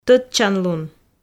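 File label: "тӧдчанлун", Komi, female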